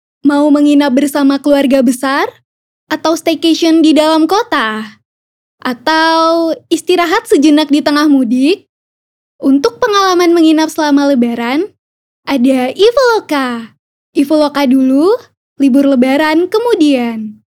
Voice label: CASUAL INDO

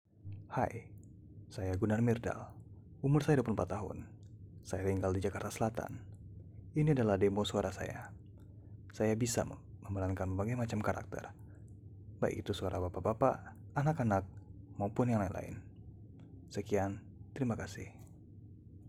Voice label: Indonesia casual

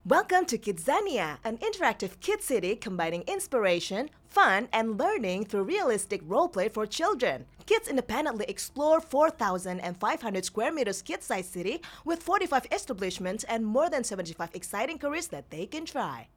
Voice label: ENGLISH; KIDZANIA

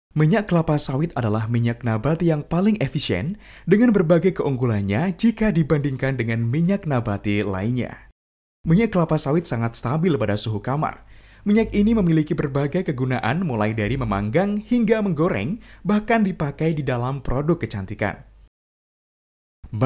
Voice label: FORMAL INDONESIA

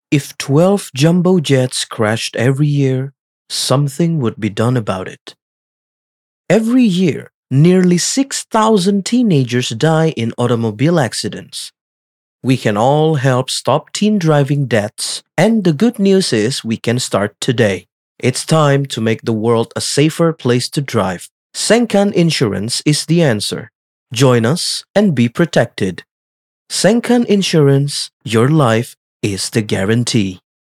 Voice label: Formal English

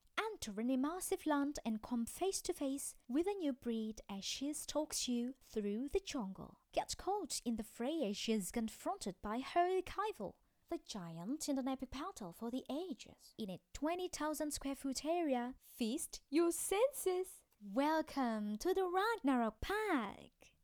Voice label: Casual English, Warm, genuine and inviting

